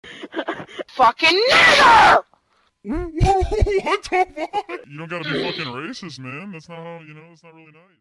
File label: voice changer trolling on xbox live raging squeaker